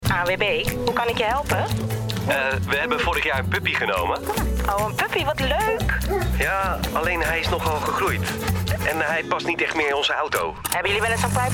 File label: male dutch commercial